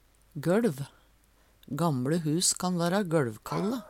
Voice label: golv, Numedalsmål; gøLv

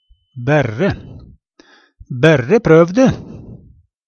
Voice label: "bærre", Numedalsmål; berre (bare); Bærre prøv de!; Adverb

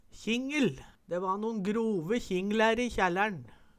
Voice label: kjingel, Numedalsmål

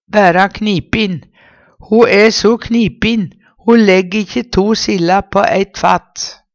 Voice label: knipin, Numedalsmål; Ho æ so knipin, legg ikkje to silla på eit fat.; Adjektiv

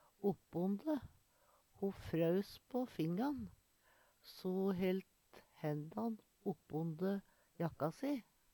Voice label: "opponde", Numedalsmål; opp under; Ho frøus på hendan so ho helt dei opponde jakka.; Preposisjon

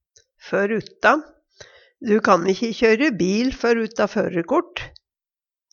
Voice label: for utta, Numedalsmål; Du kan'kje kjøyre bil før utta førerkort.; for utan

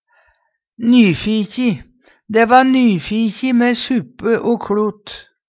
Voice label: "nyfikji", Numedalsmål; Dæ va nyfikji med suppe o kLot.; Hushald, mat, drikke; Adjektiv

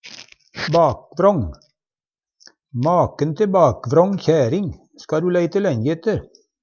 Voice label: "bakvrång", Numedalsmål; Maken te bakvrång kjæring ska`ru leite lenje ette.; Adjektiv

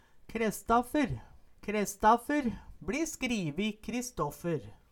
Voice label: "Krestaffer", Numedalsmål; Særnamn (personnamn)

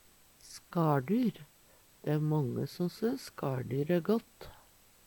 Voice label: skaldyr, Numedalsmål; Dæ æ mange som synns skaL dyr æ gått.; Substantiv inkjekjønn; Veggli

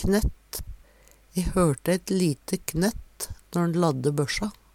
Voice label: "knett", Numedalsmål; Substantiv hankjønn; E hørte ett lite knett når`n ladde bøssja.; Veggli

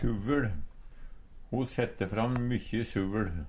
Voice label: suvL, Numedalsmål; Substantiv hankjønn; Hushald, mat, drikke

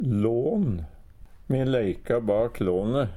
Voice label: lan, Numedalsmål; Beitelån: haug med stranga med kvisten på som ky`n jekk o gnog på om vinter`n

